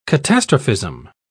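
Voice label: "catastrophism", British English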